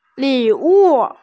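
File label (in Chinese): "li3wu4", Mandarin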